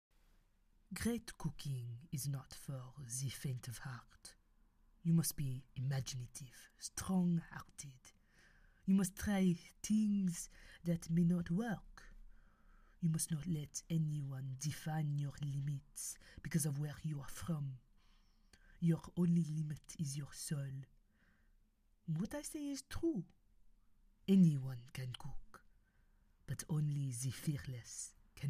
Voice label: French Accent